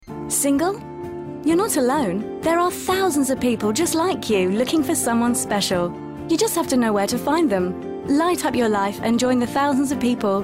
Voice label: Softsell